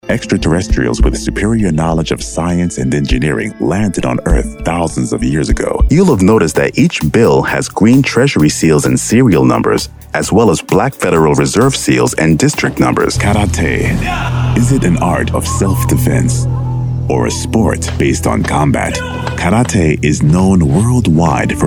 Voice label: english american documentaries

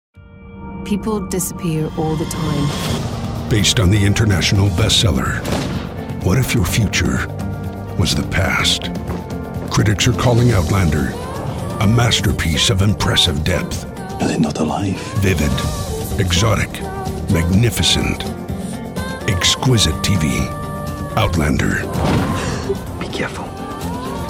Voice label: english american promos

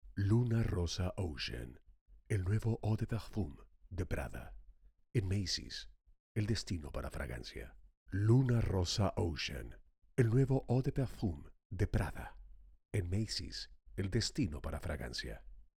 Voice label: spanish mexican corporate videos

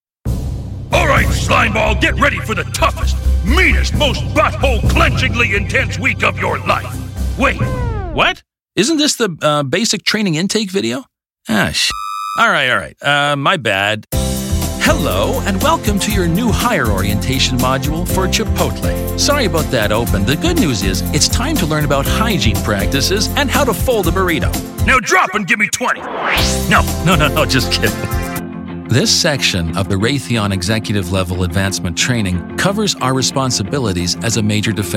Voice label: english canadian e-learning, voice actors

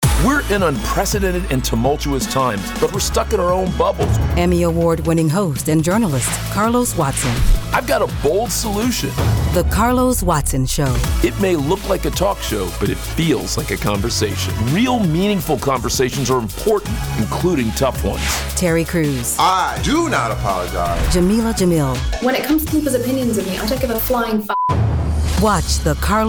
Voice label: english american tv shows

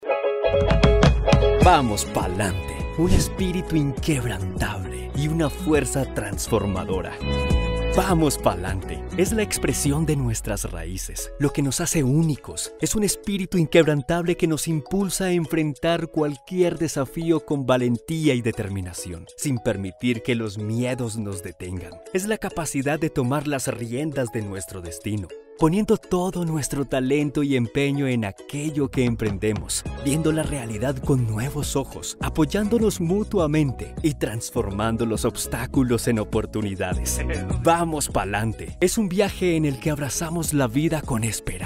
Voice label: spanish latin american corporate videos

